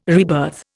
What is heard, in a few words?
"rebirth", Indian